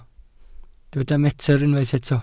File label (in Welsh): "metr", Welsh